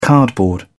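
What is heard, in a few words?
"cardboard", British English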